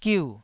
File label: "giu4", Taiwanese syllable